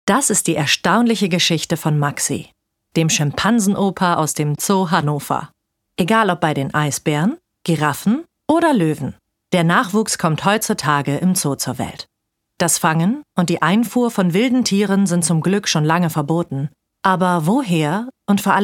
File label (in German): Doku; Zoo Hannover; LOFT VOICES